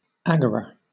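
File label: "agora", British English; pronunciations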